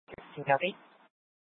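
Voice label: police dispatch radio, scanner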